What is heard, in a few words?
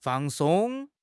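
"fang4 song1", Mandarin Chinese; HSK; HSK4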